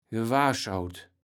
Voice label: "gewahrschaut", Kaevels Platt